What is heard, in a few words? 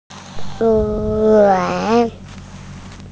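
"yuan3", Mandarin Chinese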